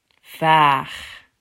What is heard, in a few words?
"Vaag", Dutch